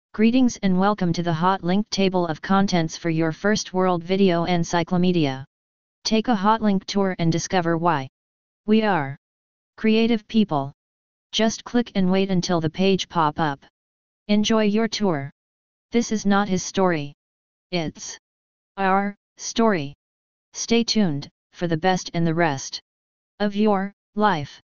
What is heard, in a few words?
text 2 voice